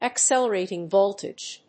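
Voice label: "accelerating voltage", English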